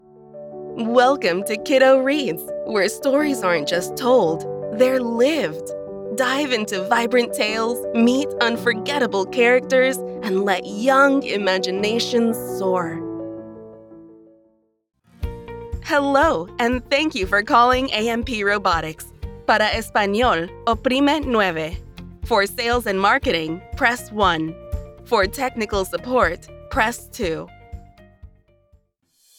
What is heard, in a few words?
IVR & Elearning